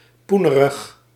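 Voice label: "poenerig", Dutch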